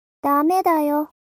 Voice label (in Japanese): mob 女子 台詞